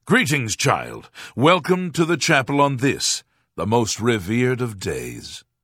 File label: Greeting Imperial Male; Unused Holiday